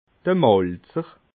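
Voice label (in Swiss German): "malzer", Alsatian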